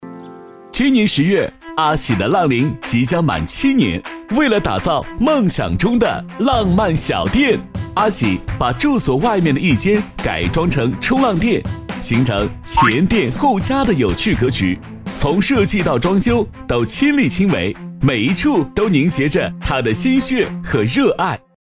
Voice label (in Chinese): 男-节目; 嗨-我的新家